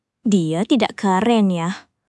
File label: indonesia, indonesian negative phrases